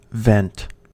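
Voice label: "vent" English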